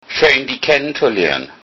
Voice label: meet, lowsaxon